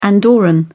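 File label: "andorran", English, British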